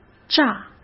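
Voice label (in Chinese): "榨", Mandarin Chinese; chinese flashcards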